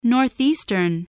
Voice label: "northeastern", voice, spelling